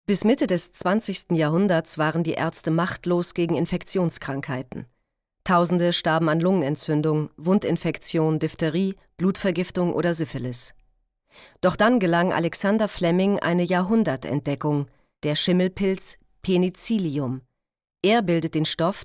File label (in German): kommentar